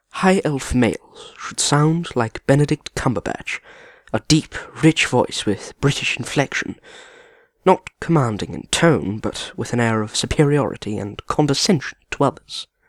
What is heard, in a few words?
highelf, voice auditions